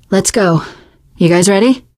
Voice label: ask ready, survivor voice teen girl